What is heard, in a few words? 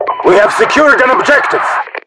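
secured objective, voiceovers RU; mpvoice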